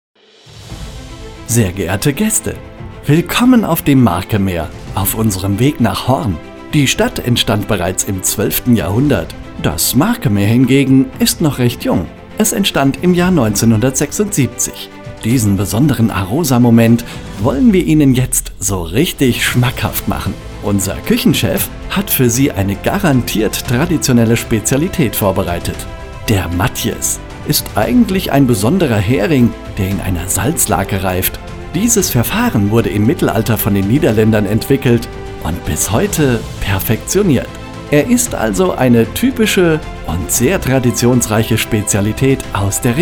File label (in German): Voice Demo, Infotainment; A-ROSA